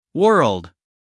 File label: "world", us male; everyone-can-use-english